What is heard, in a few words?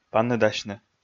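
"паныдасьны", Komi, male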